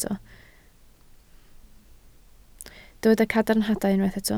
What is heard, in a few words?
"cadarnhadau", Welsh